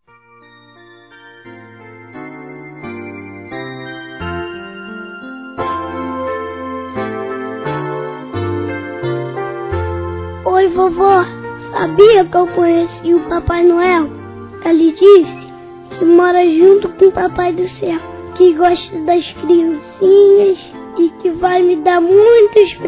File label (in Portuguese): Natal PARA Avó Masculino; Telemensagens; Natal e Ano novo